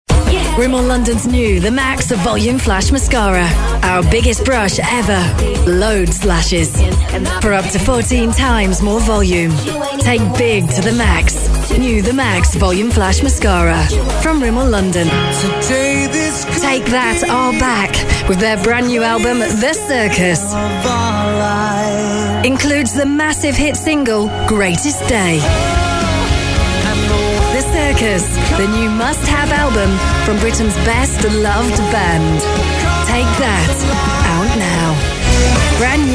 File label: female english compilation; english southern accents